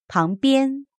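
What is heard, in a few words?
"pang2bian1", Mandarin Chinese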